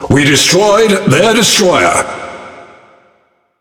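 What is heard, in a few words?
Speech Destroy Enemy Destroyer, British English; World Of Warships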